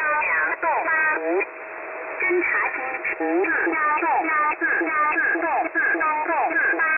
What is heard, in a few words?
Mandarin Voice Between Numbers